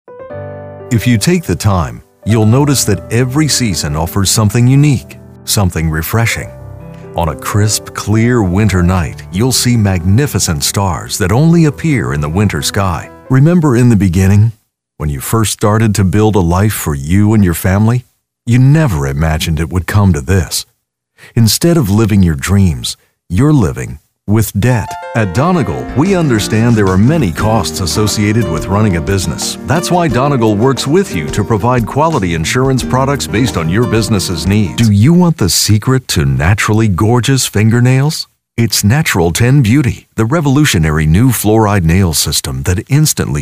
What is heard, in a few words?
Soft Sell